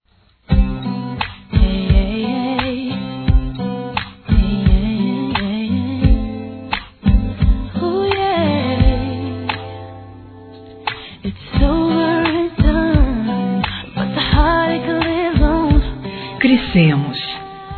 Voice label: Noivado Feminino; Telemensagens; Parabenização

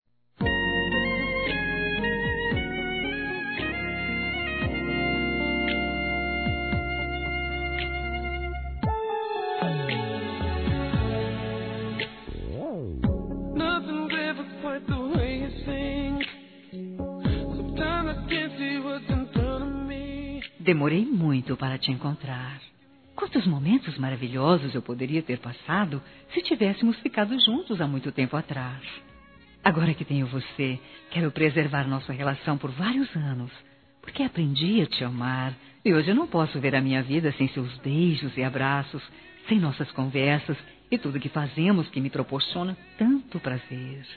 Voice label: Boa VIAGEM ROMANTICA Feminino; Telemensagens; Telemensagens 2017

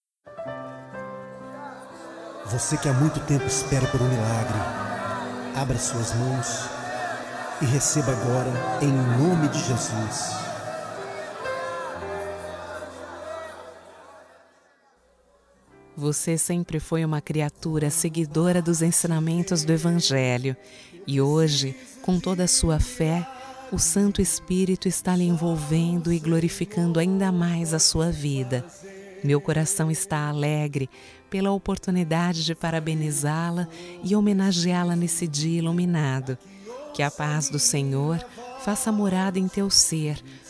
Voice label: ANIV EVANGELICO (F), Telemensagens

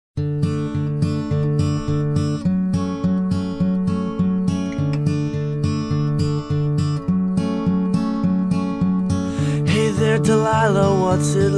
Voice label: ANO NOVO MASC; P- IRMÃ; Datas importantes; Natal e Ano novo

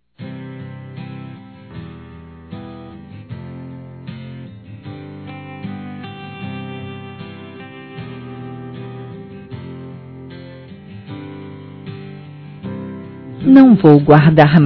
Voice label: Término Relação Feminino, Telemensagens; Reconciliação

